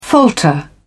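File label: "falter", English, British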